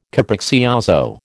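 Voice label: "capriccioso", American English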